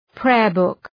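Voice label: "prayer book", English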